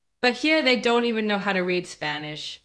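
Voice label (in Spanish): Voice Acting es F, Spanish, female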